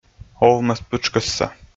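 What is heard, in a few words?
"овмӧспытшкӧсса", Komi, male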